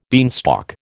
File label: "beanstalk", American